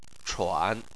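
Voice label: "chuan" third tone, Chinese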